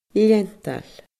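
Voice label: "leantail", Scottish Gaelic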